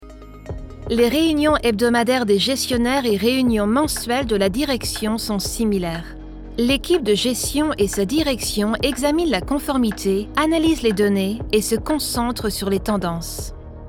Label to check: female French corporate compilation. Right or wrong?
Right